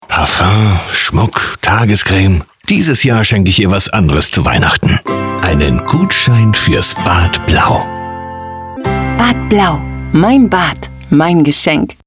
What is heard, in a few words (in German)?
Werbung; Bad Blau; Weihnachtsgutschein